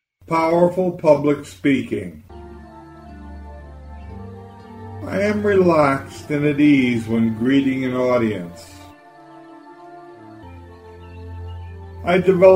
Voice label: Powerful Public Speaking, affirmations; Business Strategy